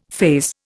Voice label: "faze", Indian